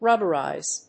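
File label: "rubberize", English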